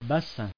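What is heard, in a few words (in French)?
"bassin" French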